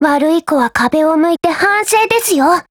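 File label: SKILL Japanese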